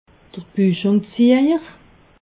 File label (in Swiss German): "buchungziejer", alsace